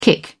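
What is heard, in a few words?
"kick", British English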